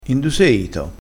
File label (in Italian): "ito", vocabolario dialettale